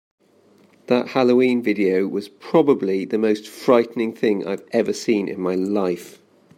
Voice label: voice message; Clips for Podcast Episode 7: 21 November 2015